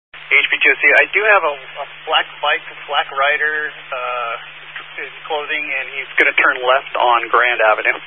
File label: Highway Patrol, Phoenix Metro West Area, scanner